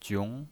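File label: "jiong", second tone, pinyin voice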